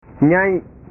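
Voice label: "nyai", Indonesian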